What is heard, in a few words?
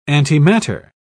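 "antimatter", British English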